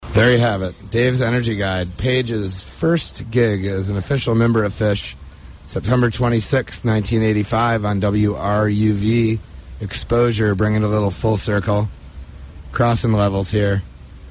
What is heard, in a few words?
radio banter; Bonnaroo